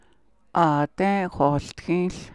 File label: Here comes the rain, Navajo